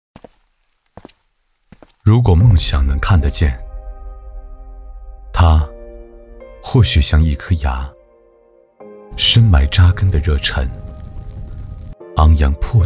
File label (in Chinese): 男 走心; 追光者说